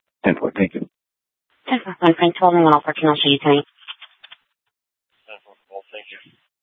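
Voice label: Scottsdale PD North Dispatch; Nth Dispatch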